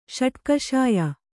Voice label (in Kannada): "ಷಟ್ಕಷಾಯ", Kannada